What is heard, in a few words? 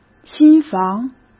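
"新房", Mandarin Chinese; hsk; chinese-flashcards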